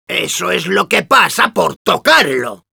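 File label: special completed, Spanish; Demoman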